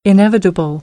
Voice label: "inevitable", American; English